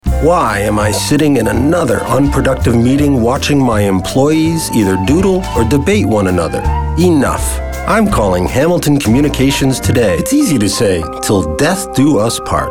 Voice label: Commercial